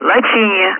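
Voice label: Speech, Russian, air traffic control; Callsign; Lochini; DCS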